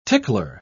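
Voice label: "tickler", British English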